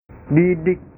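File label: "didik", Indonesian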